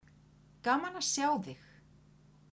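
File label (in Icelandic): "gaman að sjá þig", kvedjur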